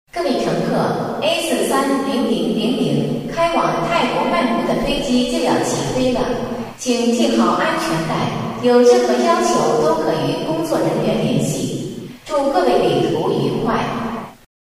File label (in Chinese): 航空配音